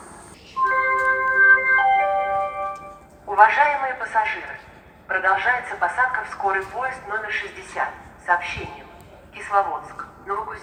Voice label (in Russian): jd obiavleniia, zvuki jd vokzala